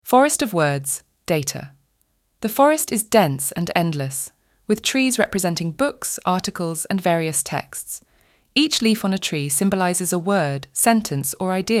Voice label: Magical Parrot British